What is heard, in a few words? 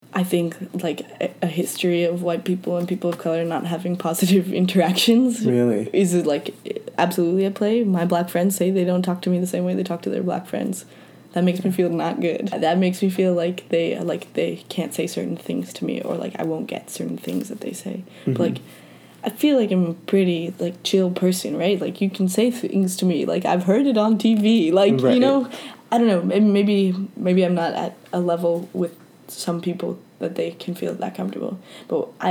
code switching female